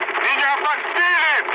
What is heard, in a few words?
aircraft destroyed, mood med, speech ru